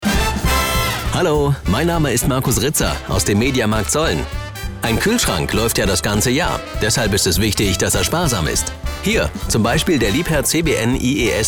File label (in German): Sprecher werbung; media markt